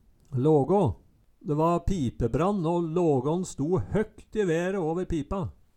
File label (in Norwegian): laga, Numedalsmål